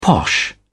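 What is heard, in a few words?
"posh", British English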